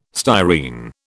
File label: "styrene", British